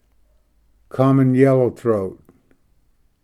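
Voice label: "common yellowthroat", English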